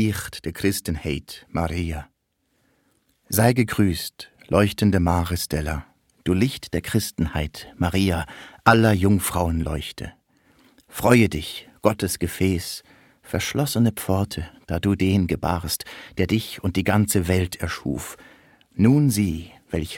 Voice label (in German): Audioguide Kloster muri